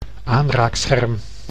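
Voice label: "aanraakscherm", Dutch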